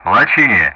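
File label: Speech Sound Russian; Common Player Callsign Lochini; DCS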